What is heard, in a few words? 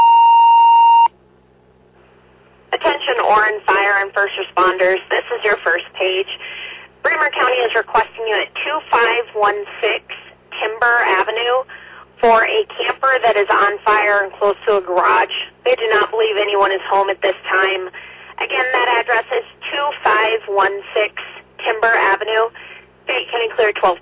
Oran Fire, dispatch